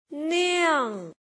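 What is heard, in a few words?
"niang", pinyin, fourth tone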